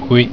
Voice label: "oui", french